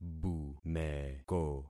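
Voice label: "bumeko", concatenated syllables; Affixing experiment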